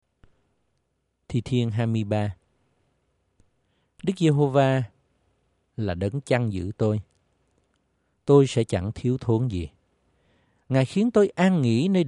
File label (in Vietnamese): Kinh Thánh Tiếng Việt; Thi thiên 23; Kinh Thánh Tiếng Việt 1925